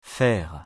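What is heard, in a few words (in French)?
"faire", French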